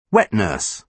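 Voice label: "wet nurse", British English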